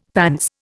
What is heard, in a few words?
"pants", Indian; Dictionary